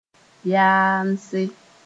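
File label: "yaamse", Mooré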